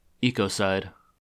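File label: "ecocide", US English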